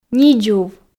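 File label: "нидзув", Komi, female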